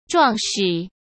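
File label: "壮实", Mandarin Chinese; hsk; chinese-flashcards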